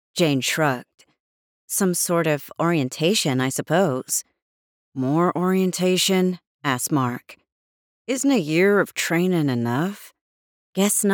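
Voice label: Audiobook SCIFI Third Person male female Dialogue US American; australian voice over; audiobook narration; Rings Of Mars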